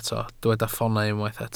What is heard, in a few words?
"ffoonau", Welsh